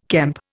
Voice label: "kemp", Indian